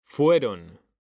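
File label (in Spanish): "fueron", Spanish; mekanta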